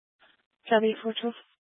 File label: Police Department Dispatch, scanner